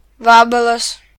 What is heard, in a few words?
beetle, lt; gcompris